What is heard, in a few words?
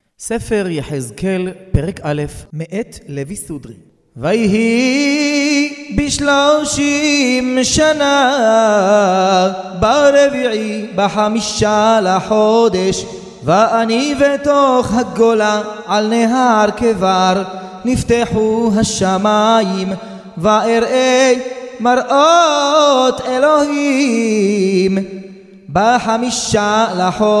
recorded tanach yechezkel morrocan